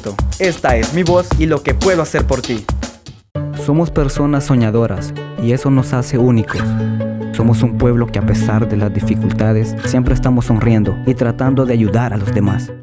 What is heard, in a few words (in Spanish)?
voz marketing